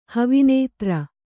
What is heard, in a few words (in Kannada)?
"ಹವಿನೇತ್ರ", Kannada